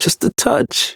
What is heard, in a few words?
Ambient Barks, en; Warframe; Mall Romance; Quincy